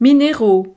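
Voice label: "mineraux", French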